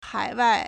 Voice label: "hai3wai4", Chinese